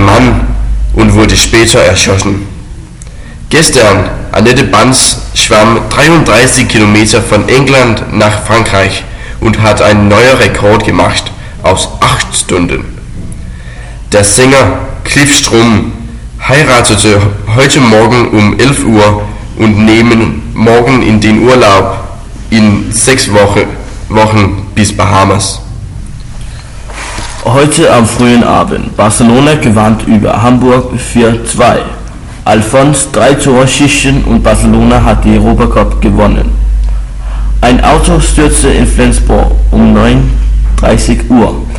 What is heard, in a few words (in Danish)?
nachrichten oplaesning